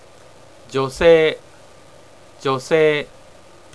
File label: female; japanese